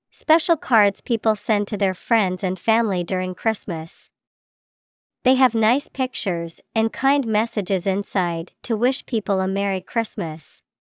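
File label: Christmas card, English vocabulary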